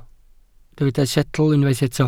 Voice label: "setl", Welsh